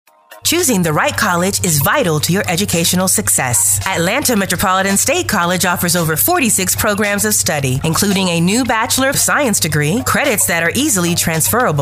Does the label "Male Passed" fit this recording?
No